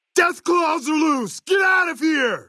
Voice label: male adult default dialogue; Fnv

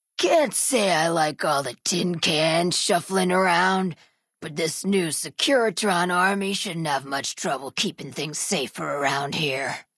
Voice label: female generic ghoul dialogue greeting; Fnv

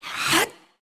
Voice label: female skill; Aion

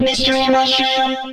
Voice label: voice mystery mushroom; SMM2

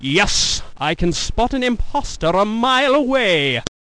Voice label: gas flame old voice; Blazing Dragons

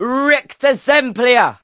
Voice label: Male Duel

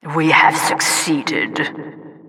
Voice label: announcer we succeeded

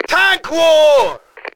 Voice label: tank war, RU

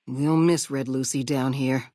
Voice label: female adult greeting

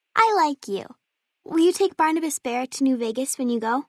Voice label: Female child dialogue greeting; FalloutNV

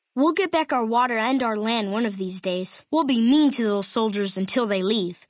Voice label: male child greeting

